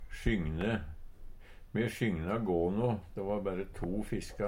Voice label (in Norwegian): "sjygne", Numedalsmål; Verb; Me sjygna gåno, de va berre to fiska.; Jakt, fangst, fiske